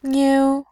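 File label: "niu", Mandarin, tone 1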